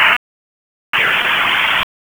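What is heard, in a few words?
fire department dispatch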